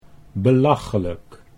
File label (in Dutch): "belachelijk", Dutch; 'ridiculous'